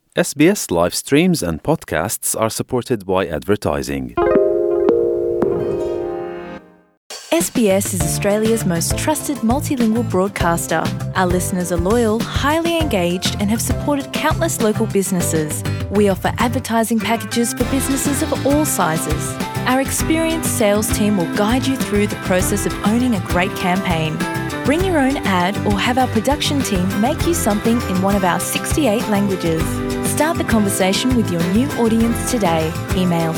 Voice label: portuguese; sbs